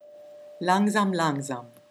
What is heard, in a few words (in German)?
langsam